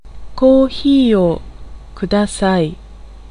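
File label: Japanese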